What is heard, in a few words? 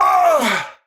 Pain; Warframe; Tau; Dax; Knight